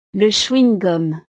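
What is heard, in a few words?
"le chewing gum", French; snacks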